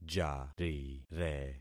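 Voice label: "jarire", concatenated syllables; Affixing experiment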